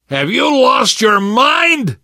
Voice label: team kill accident, left4dead2 survivor voice namvet; source engine